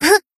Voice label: Shout; BA; Azusa; Swimsuit

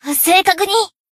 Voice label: Shout; BA; Azusa Swimsuit Battle

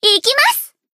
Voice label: Battle Shout; Akari

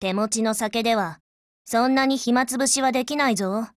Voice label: LOADING JP

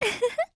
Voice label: MOOD JP; CAR

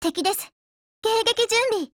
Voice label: MEET JP

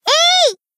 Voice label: Battle Shout; Ibuki

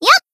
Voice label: Battle Tactical Action; Kotori Cheerleader

